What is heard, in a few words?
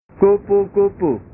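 "kupu kupu", Indonesian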